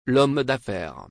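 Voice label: "L'homme d'affaire", French; مردی که بیزنس می‌کند.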